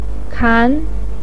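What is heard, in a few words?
"kan", Mandarin Chinese, second tone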